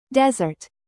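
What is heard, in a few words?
"bass", noun, British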